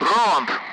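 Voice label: Speech Sound Russian Common Allied Flight; AirDefence; DCS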